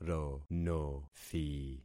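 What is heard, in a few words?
"ronothi", concatenated syllables; Affixing experiment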